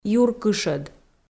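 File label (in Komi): "юркышӧд" Komi, female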